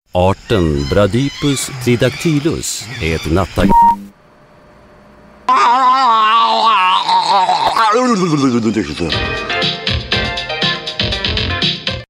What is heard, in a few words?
in Swedish Impersonation of a Sloth